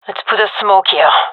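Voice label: request smoke, gendarmerie female; vo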